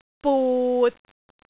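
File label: "but6", Cantonese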